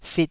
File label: "fit2", taiwanese syllables